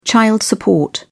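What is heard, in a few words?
"child support", British English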